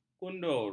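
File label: "kundur", Malay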